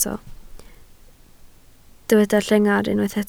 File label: "llengar", Welsh